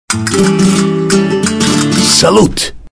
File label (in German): prost auf spanisch